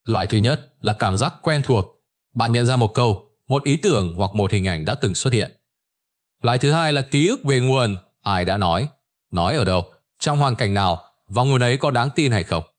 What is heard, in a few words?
narration